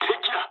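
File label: Japanese enemy detected mood low; War Thunder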